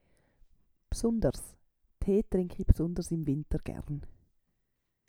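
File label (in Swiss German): "bsunders", Swiss German; Wortschatz Kapitel 8; Kapitel 8 Zusatzwortschatz 3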